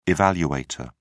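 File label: "evaluator", British English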